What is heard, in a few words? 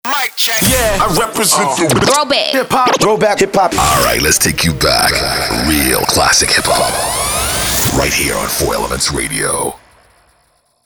Radio Sweeper; 4 Elements Radio